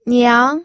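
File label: "niang", Mandarin Chinese, second tone; pinyin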